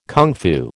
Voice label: "kung fu", American